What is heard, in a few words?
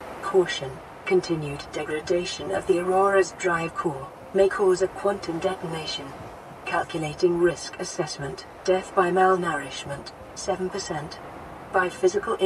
Updated AI Speech